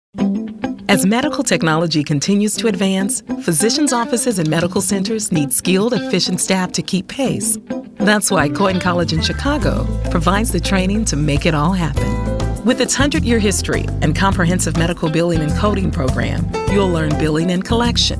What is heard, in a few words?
vo spot